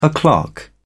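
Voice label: "o clock", English, British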